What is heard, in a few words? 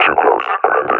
near panic, officer; Half Life Alyx; Half Life Model Pack